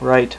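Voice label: "right", male; Web Clip Empire 150,000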